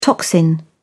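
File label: "toxin", British English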